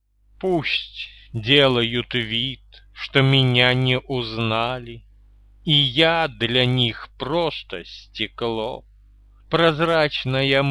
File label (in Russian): звучащие стихи; "Я еще не выжат и не выпит..."